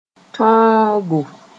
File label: "tõogo", Mooré